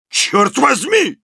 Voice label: negative vocalization, ru; «Чёрт возьми!»; Soldier